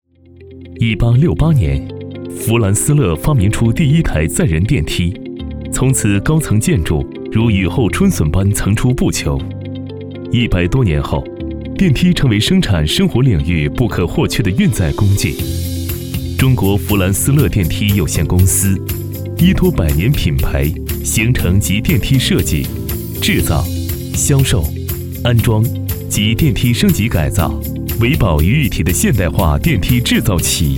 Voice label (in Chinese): 男 大音 科技讲述; 弗兰斯勒